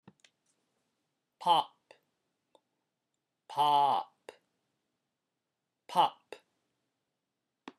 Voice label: vowel pop